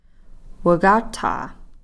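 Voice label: "wakathaa", Koasati word for "which"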